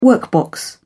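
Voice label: "workbox", British English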